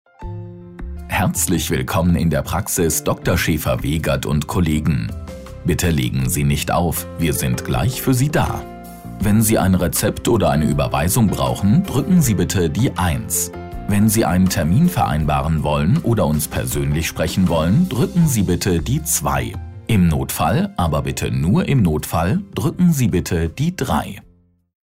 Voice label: Ansage; Telefonansage Arzt – Praxis – Hausarzt – Augenarzt – Hautarzt – HNO – Arztpraxis – Ärzte

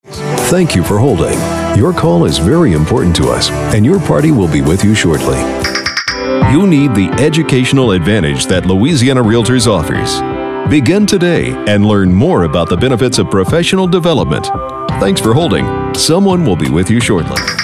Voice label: telefonansagen sprecher us; Native Speaker

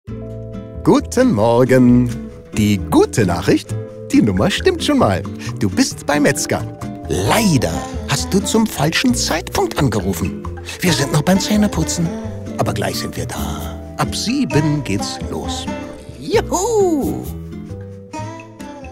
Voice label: Guten Morgen Ansage

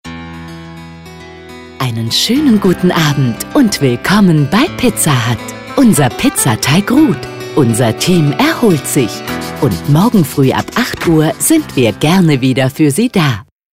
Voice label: AB Ansage; Pizza Hut

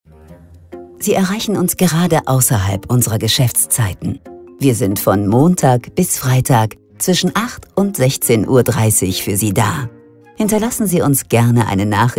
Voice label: Telefonansagen mit der deutschen Demi Moore Stimme

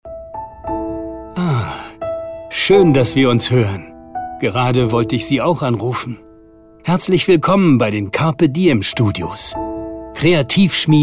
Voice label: Telefonansage; Kreative Telefonansage

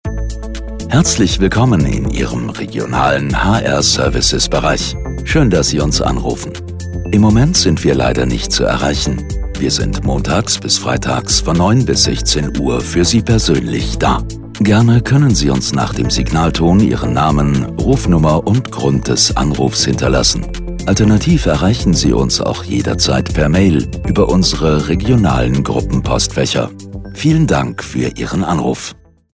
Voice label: Service Ansage; DB Schenker

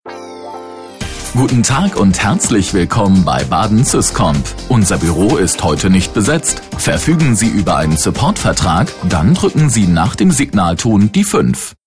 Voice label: Ansage

